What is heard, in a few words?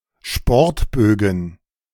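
"Sportbögen", German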